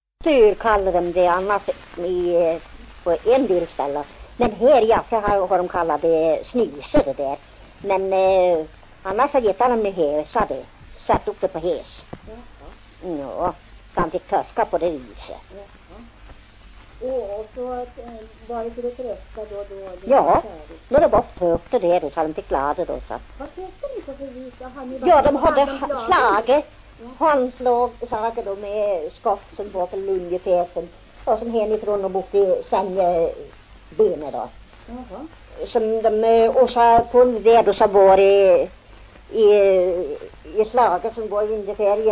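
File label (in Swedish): Järvsö Hälsingland, dialekter; Folkminnen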